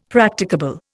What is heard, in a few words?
"Practicable", Indian; Dictionary